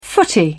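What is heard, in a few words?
"footy", en, uk British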